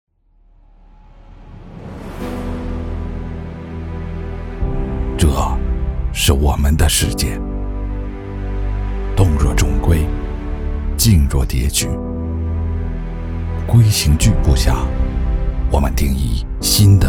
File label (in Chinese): 男, 低沉走心旁白; 英皇意梵图